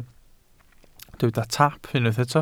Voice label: "taap", Welsh

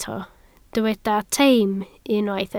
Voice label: "teim", Welsh

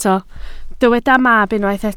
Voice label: "mab", Welsh

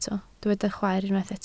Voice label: "chwaer", Welsh

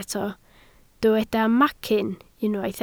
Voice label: "macyn", Welsh

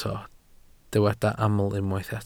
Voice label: "aml", Welsh

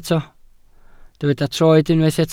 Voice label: "troed", Welsh

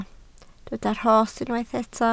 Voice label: "rhos", Welsh

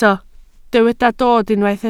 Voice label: "dod", Welsh

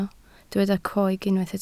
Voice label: "coeg", Welsh

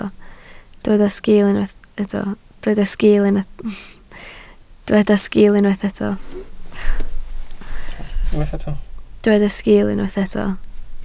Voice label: "sgiil", Welsh

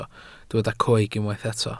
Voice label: "coeg", Welsh

